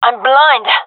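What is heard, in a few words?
blinded, female; vo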